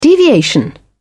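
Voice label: "deviation", British English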